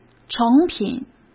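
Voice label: "成品", Mandarin Chinese; hsk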